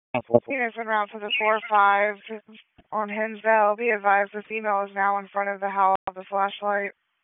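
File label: Police Southwest District Dispatch, p25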